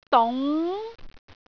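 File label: "dung2", Cantonese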